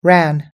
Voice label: "ran", US English